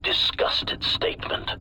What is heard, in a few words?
disgusted statement